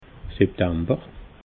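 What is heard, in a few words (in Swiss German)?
"septamber", Alsatian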